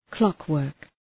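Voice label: "clockwork", English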